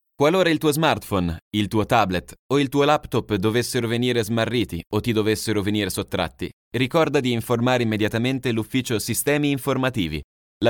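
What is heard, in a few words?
Italian, male, e-learning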